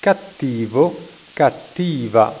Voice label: "cattivo", italiaans